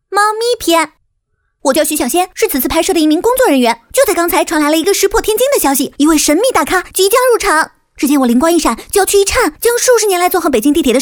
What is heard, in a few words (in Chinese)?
宣传片 病毒 手机 猫咪篇, 女国; 美图手机AnglaBABY猫咪篇